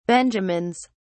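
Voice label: "benjamins", English, British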